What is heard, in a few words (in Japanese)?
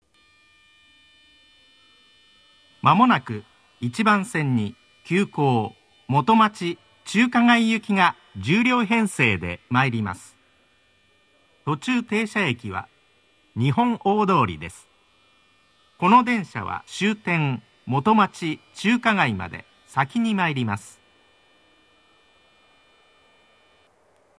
接近放送; 急行元町・中華街行 １０両編成; （日本大通りに停車）; 馬車道駅 まもなく１番線に急行元町・中華街行が１０両編成で参ります。途中停車駅は日本大通りです。; minatomirai